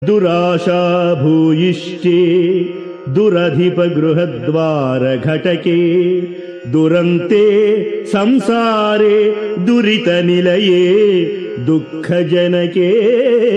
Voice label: Sanskrit; Sri Adi Sankaracharyas Sivananda Lahari; Adi Shankaracharya; श्रीशिवानन्दलहरी १९